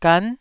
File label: "gan9", Taiwanese